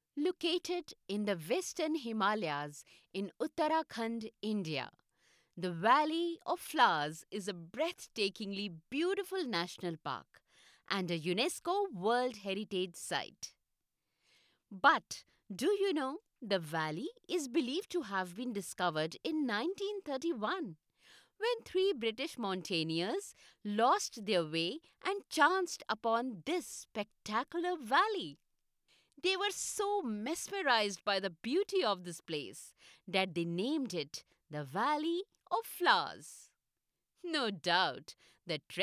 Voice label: english indian narration; English (Indian) Voice Actor